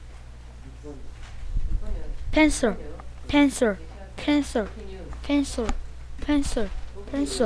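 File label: "pencil", spoken word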